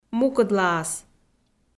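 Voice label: "мукӧдлаас" Komi, female; kpv audio dict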